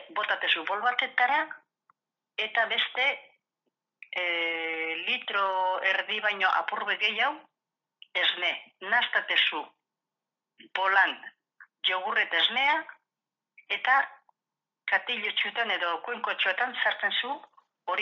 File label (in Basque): yogurt natural euskeraz